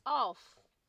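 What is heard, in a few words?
"off", Nigerian Pidgin, female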